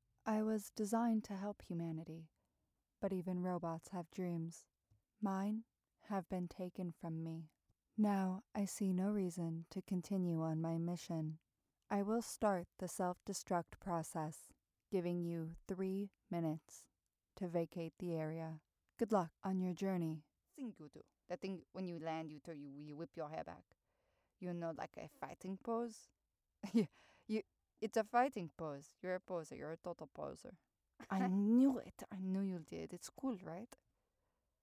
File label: Animation